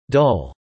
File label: "dull", English